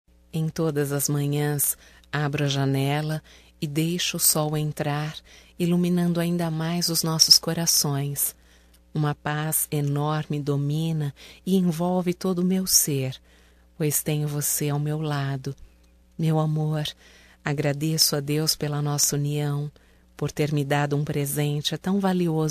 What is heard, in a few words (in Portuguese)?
ROMANTICA PARA CASAIS I, fem, Só Voz; Telemensagens; ROMÂNTICAS